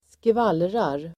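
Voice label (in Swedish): "skvallrar", Swedish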